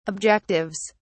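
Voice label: "objectives", British English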